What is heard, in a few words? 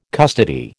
"custody", American